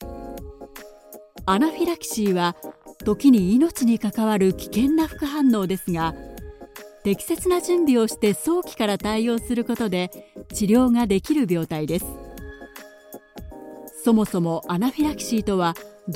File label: Medical E-Learning